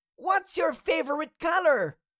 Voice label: Cartoon little child voice what is your favorite color